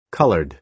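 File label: "coloured", American English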